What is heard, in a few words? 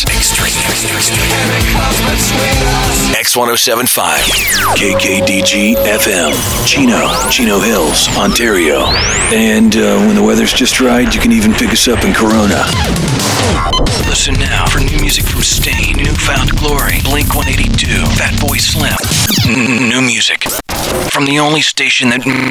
ALT-ROCK Radio; radio's bygone eras ('91-'99)